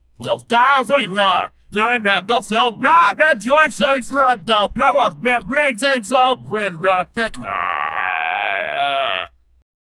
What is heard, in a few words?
energetic announcer